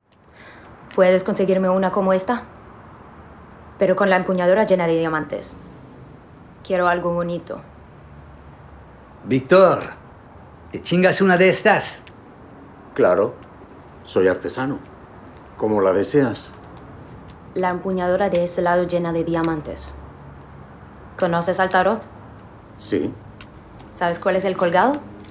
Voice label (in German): Spanisch; Too-Old-To-Die-Young